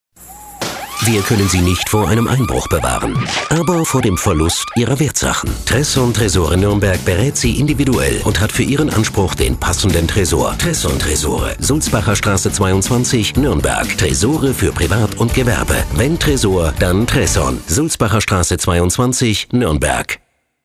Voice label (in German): Radiowerbung Wertsachen schuetzen